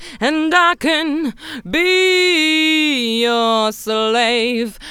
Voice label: Vocal Editing Noise Edit Fade

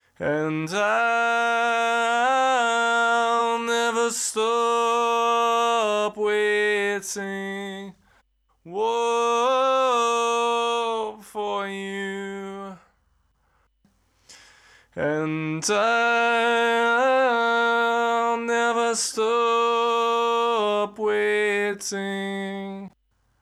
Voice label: Vox Notch Out

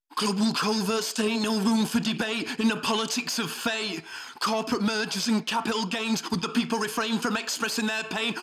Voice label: Vox Dist Phase In

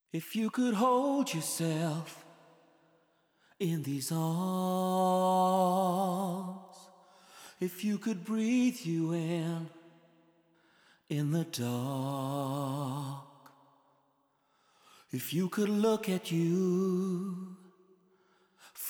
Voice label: Vox Auto FX

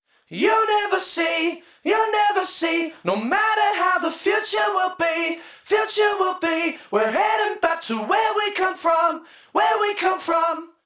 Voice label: Vox No Delay

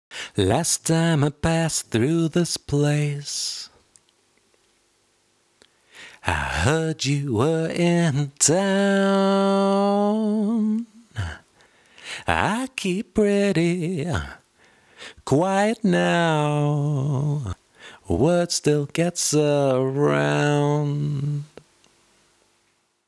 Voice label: Vocal Verse Dry

